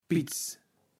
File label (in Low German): "Pitz", kaevels platt